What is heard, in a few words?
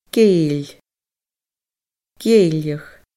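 "geill geilleadh", Scottish Gaelic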